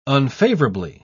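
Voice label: "unfavourably", English, uk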